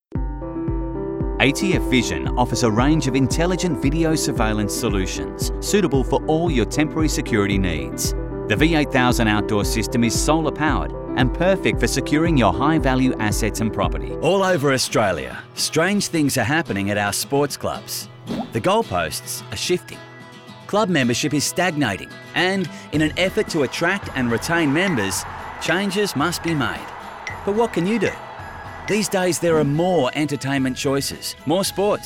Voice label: CORPORATE

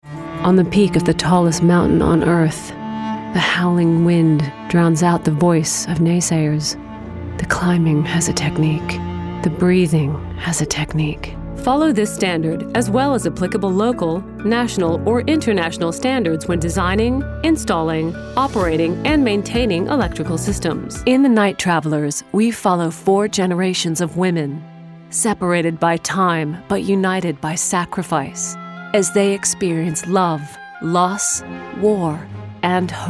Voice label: narration

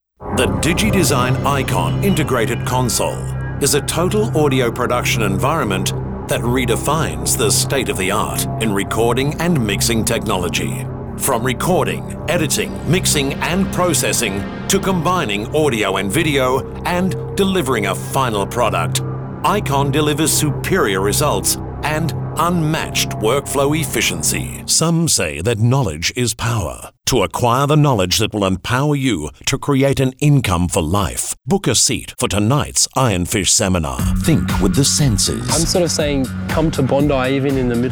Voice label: corporate

